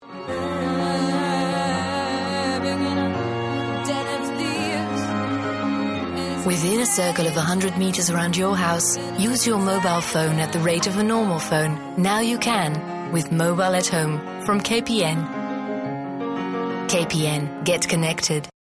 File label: woman british